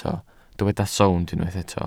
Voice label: "sownd", Welsh

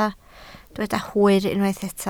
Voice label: "hwyr", Welsh

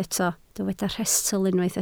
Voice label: "rhestl", Welsh

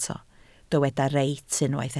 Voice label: "reit", Welsh

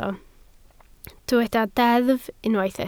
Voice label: "deddf", Welsh

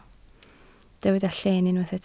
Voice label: "lleen", Welsh

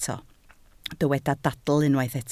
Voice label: "dadl", Welsh

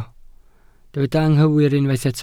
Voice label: "anghywir", Welsh

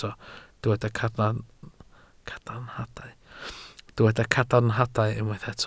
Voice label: "cadarnhadau", Welsh

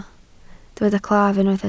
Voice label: "claf", Welsh

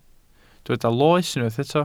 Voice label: "loes", Welsh

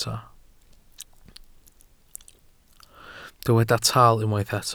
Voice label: "taal", Welsh

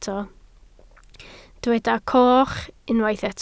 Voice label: "coch", Welsh

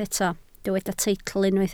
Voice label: "teitl", Welsh

